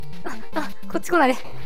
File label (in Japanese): ダメージボイス; 悪魔城ドラキュラ(FC版)